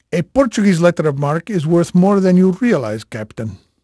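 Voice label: Portuguese male, voice; Pirates Of The Caribbean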